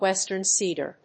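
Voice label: "Western Cedar", English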